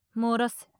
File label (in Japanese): 漏らせ・強く・近・左, ASMR